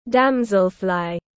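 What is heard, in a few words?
"Damselfly", English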